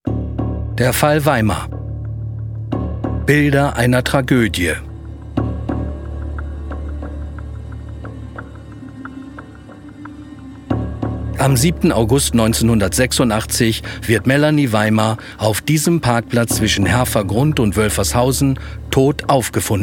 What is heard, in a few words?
Doku, Dokumentation, German; "Der Fall Weimar" Dokumentation